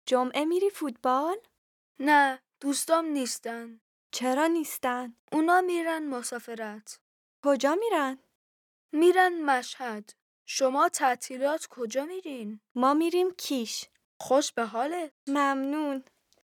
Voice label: Dialogue lesson Farsi